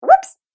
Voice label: Cartoon Game Voice Whoops

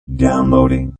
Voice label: Robot Voice "Downloading"; Robot Voice 2

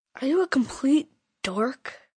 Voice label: Complete Dork, Attitude, 1 boy, 11 yrs; VoiceBox

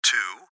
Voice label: Gps Voice, Male; Recorded Voice; Blastwave; Technology

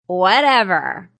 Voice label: Young Lady Says Whatever; Talking Sound Effects

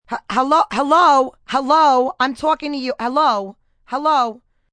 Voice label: "Hello" Boston, Greetings, female

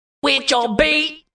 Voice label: Vocal, Funk vocal loop sample.; Loop Vocal WitUrBeat 110bpm

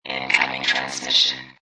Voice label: Robot Voice "Incoming Transmission"

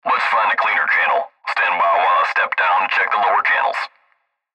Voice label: Cb Trucker Radio; Cb Radio Male Lets Find A Cleaner Channel Standby While I Step Down and Check The Lower Channels; Radio Voice; Blastwave

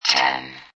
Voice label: Robot Voice "0"

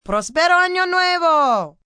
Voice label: New Year, female, Spanish; Occasion, event; Holiday Sound Effects

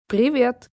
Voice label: Hello Russian, Greetings, male; VoiceBox

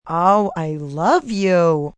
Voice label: Oh I Love You, female; Endearment; Talking Sound Effects

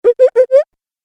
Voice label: Cartoon Game Voice Mm mm mm mm

